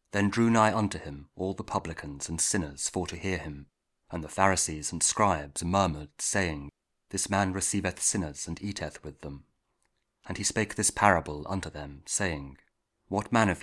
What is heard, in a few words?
King James Audio Bible KJV; Parables Of God's Mercy The Lost Sheep And The Lost Coin; Parables Of Jesus